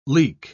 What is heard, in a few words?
"leak", pronounce